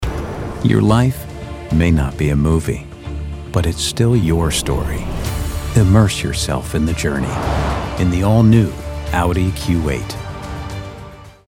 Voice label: Commercial Luxury Sensual Smooth

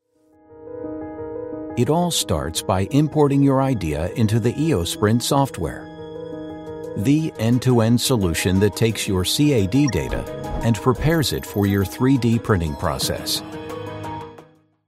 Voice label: Sophisticated Confident Intelligent

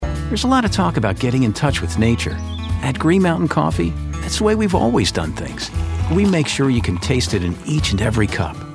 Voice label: Commercial Outgoing Pleasant Bright

